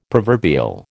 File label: "proverbial", American